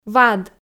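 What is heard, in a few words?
"вад", Komi, female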